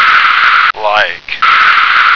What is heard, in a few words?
"like", American